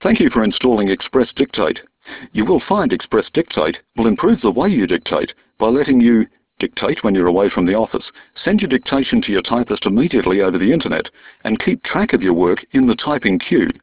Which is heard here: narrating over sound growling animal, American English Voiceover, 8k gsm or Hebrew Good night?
8k gsm